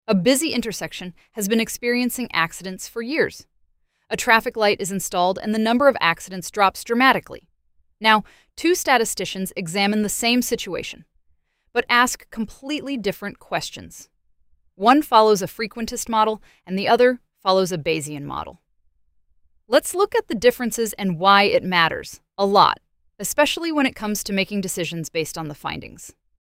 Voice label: narration; Bayesian Explainer; bayesian vs frequentist research